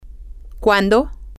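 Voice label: when, Spanish, female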